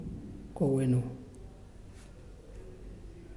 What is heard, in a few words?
"That", Muyuw; Lae Unitech